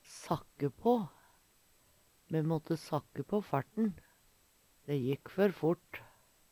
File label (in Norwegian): sakke pa, Numedalsmål